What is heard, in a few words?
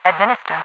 "administer", radio voice; hl2; npc; overwatch